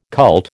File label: "cult", American